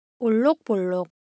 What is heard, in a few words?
Korean, Ideo Phones; Texture